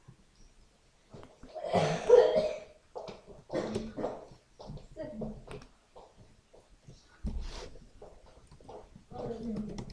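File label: "pick", spoken word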